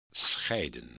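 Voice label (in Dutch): "scheiden", Dutch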